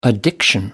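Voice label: "addiction", English, British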